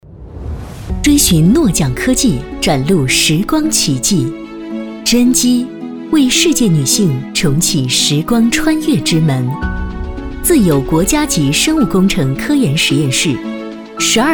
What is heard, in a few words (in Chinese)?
女 广告 化妆品 科技大气